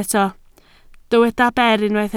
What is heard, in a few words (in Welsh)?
"ber", Welsh